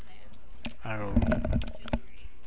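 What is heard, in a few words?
"aloe", Japanese, male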